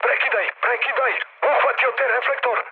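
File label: Serbian searchlite mood low, speech; War Thunder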